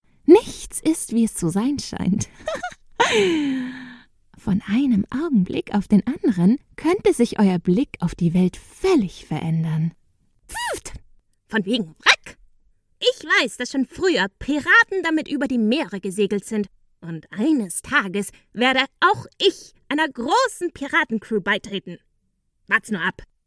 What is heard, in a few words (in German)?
Character High German